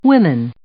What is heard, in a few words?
"women", English; Consonants in American English; easypronunciation